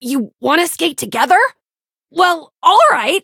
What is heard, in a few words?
touch, en; cookie run